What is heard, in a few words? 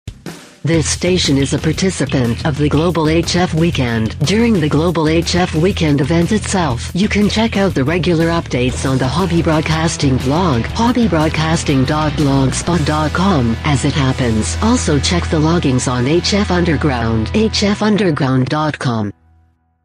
PROMO GLOBAL HF WEEKEND female